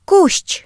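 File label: "bush", British English; gcompris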